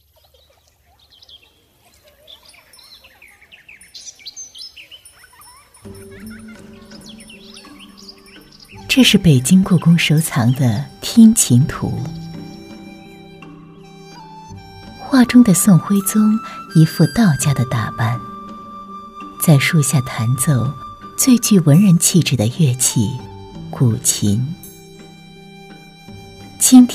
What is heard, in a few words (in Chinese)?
女-讲述类; 台北故宫